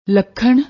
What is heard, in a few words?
"lakhan", Punjabi; ਲੱਖਣ